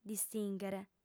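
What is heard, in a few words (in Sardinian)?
"distìnghere", female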